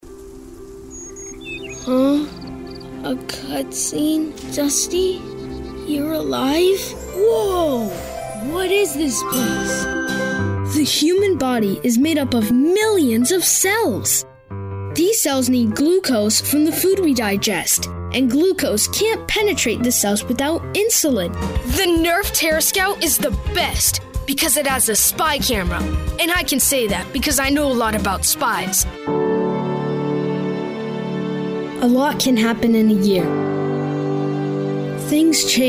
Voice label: Voice-Over